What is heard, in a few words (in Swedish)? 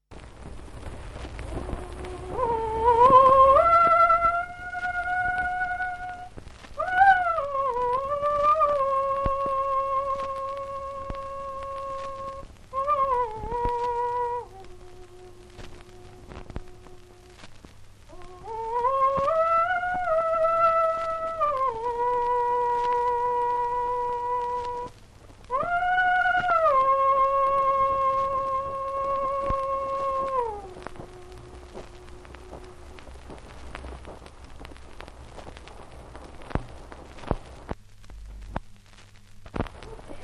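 Hede Härjedalen, dialekter; Folkminnen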